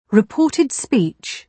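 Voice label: "reported speech", British English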